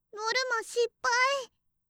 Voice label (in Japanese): ノルマ失敗, 音ゲー風